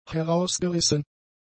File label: "herausgerissen", German; adjectives